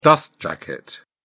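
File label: "dust jacket", British English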